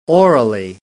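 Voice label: "orally", British English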